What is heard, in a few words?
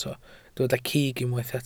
"cig", welsh